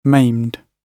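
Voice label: "maimed", British English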